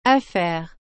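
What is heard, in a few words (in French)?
"affaire", French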